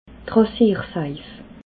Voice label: "rasiersaif", alsace